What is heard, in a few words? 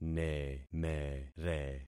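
"nemere", concatenated syllables; Affixing experiment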